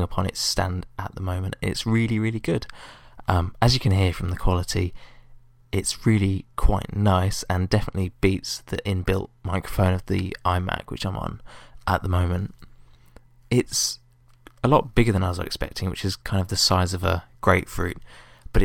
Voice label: Blue Snowball mic test

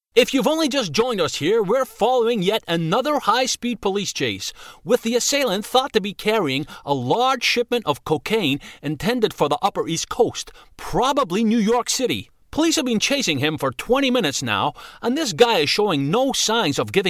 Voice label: american news reporters

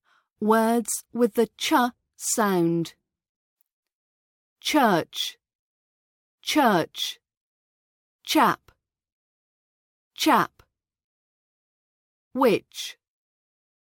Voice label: affricates rp british accent practice

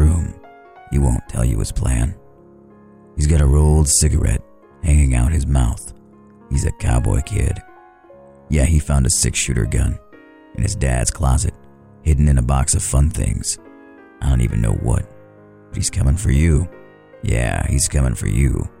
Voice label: a dramatic reading

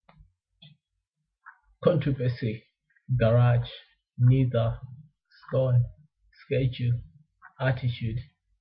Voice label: male; lilongwe malawi 1989

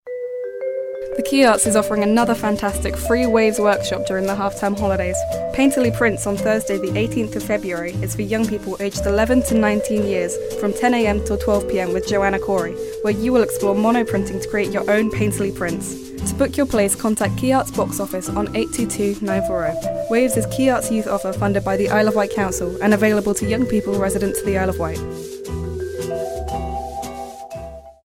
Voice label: promo; wave painterly prints

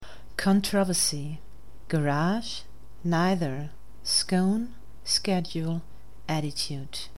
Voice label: Hagen Germany female

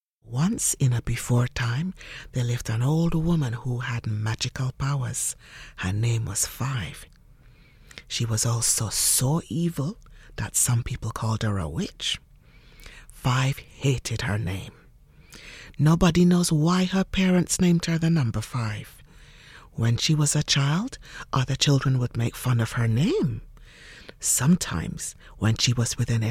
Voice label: voiceover narration caribbean childrens story; anansi and the yam hills

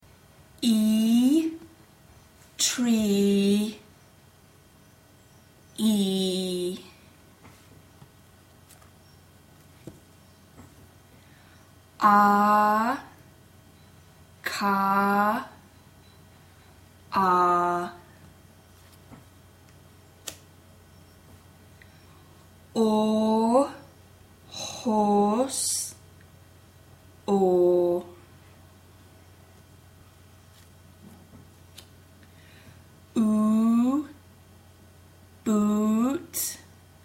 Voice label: long vowels pronunciation

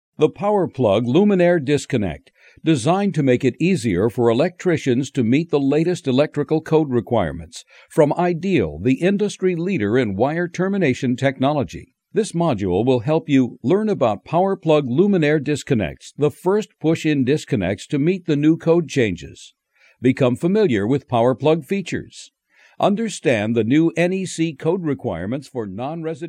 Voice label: e-learning

